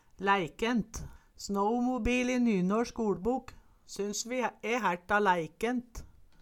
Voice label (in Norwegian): laeikent, Numedalsmål